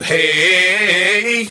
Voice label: "hey", Voice